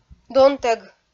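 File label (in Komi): "донтӧг", Komi, female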